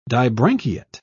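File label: "dibranchiate", British English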